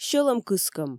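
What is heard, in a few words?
"сьӧлӧмкыскӧм", Komi, female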